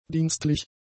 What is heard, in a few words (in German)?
"dienstlich", german; adjectives